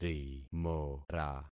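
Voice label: "rimora", concatenated syllables; Affixing experiment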